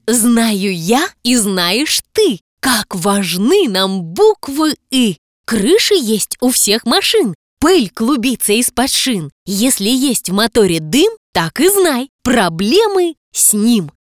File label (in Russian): igrivo